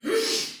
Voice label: Attack Soldier Female, Battle Barks; Dialog; Sinecure

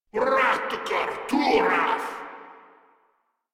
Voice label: Cover Me, Grineer Male; Warframe

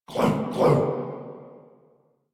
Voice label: Banter; Warframe; Clem; en

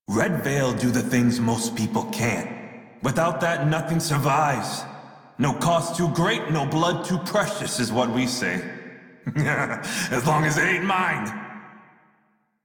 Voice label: Crew Idle Male en; Dialog; Warframe; Railjack Crew; Red Veil

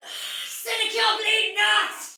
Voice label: Damage Soldier Female, Battle Barks; Dialog; en; Sinecure

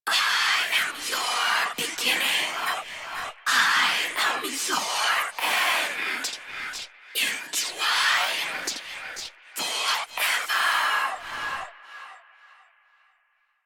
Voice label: Whisper; Protea Quest; Protea Whispers; Protea Specter

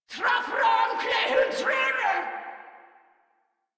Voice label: Attack Grineer Female; Warframe

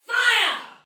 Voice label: Shoot Arrow Soldier Female, Battle; Dialog; Sinecure

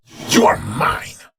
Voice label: Enemy Spot, Barks Battle

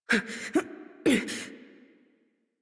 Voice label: Horse Mount Adult; Warframe; Duviri

